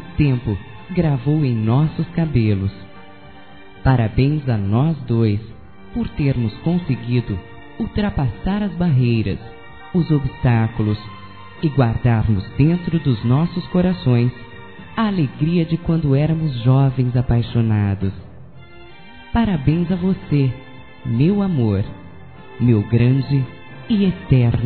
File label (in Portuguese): Aniv. Casam. ROM (Pes+Velhas) f; Aniversários; Aniversários diversos; fem